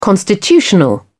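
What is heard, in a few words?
"constitutional", English, British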